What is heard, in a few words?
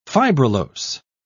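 "fibrillose", English, British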